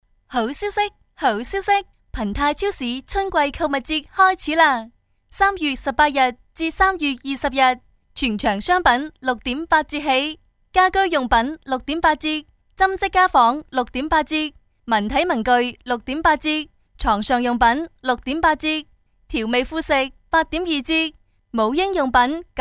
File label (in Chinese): 女43-激情粤语